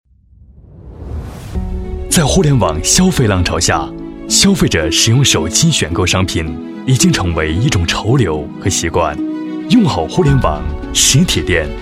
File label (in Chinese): 男 品牌宣传 宣传配音; 阿甘汇客互联网营销工具